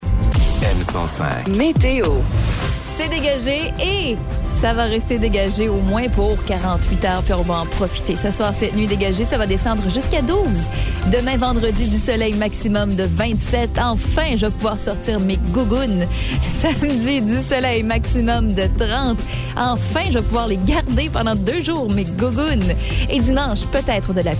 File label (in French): voix femmes